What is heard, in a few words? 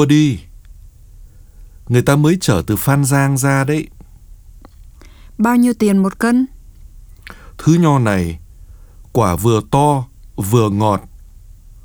Dialog